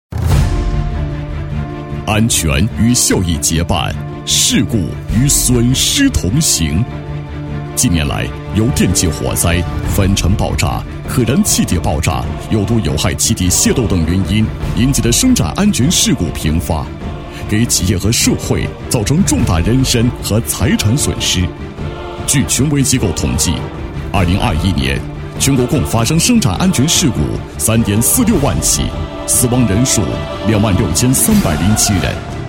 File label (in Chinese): 男 安全生产监测预警系统 严肃沉稳; 沉稳大气